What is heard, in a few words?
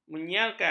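"menyiarkan", Malay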